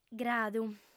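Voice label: "gradu", female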